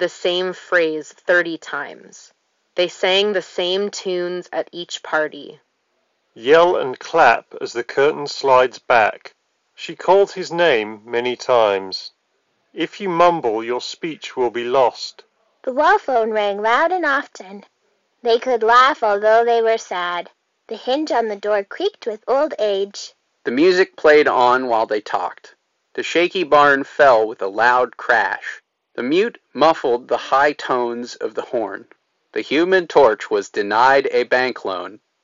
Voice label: Sennheiser Accentum Wireless Ideal microphone sample